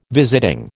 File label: "visiting", American